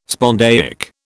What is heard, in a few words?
"spondaic", British